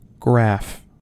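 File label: "graph", US English; pronunciations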